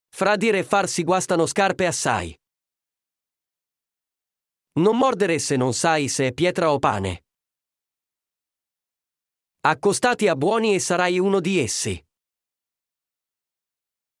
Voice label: Italian proverbs

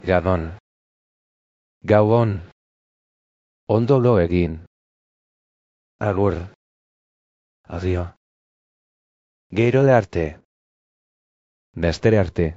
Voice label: basque farewells; greetings and salutations in basque

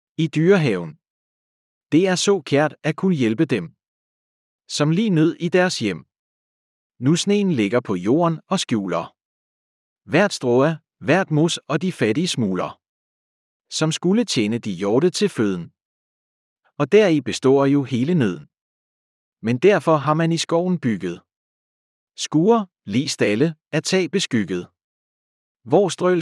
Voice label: at the deer park danish

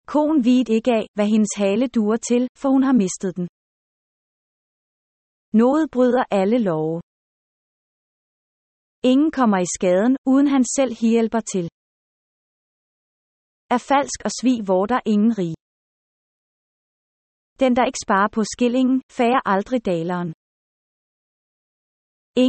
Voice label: danish proverbs

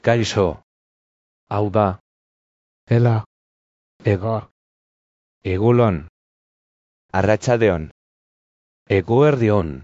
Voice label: basque greetings; greetings and salutations in basque

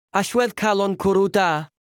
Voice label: Welsh proverb

